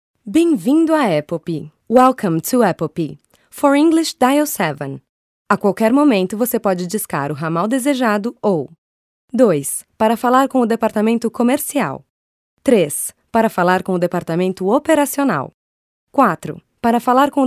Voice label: Ura Telefonica

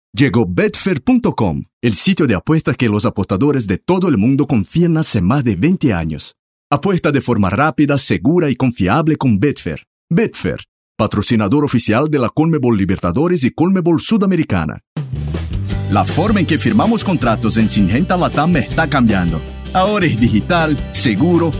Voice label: repertorio espanhol

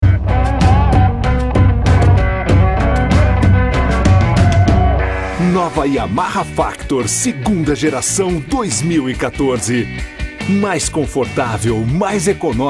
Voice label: locucao; yamaha factor

